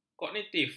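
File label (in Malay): "kognitif", Malay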